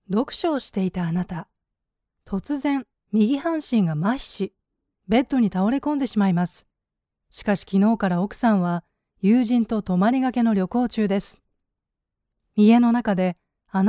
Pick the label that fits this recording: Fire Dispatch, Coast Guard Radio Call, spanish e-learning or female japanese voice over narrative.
female japanese voice over narrative